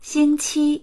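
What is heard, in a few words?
"xing1qi1", Mandarin Chinese; 星期; 週間、曜日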